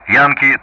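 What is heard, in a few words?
Speech Sound Russian; DCS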